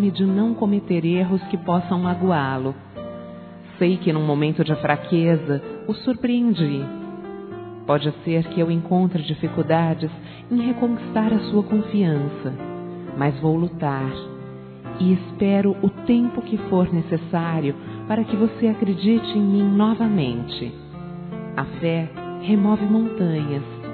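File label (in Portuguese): Eu errei, Desculpas, Telemensagens, female; Telemensagens 2017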